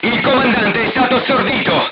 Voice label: voice message commander contused, crew tanks italian